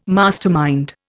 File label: "mastermind", Indian